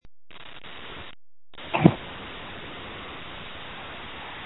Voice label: call recording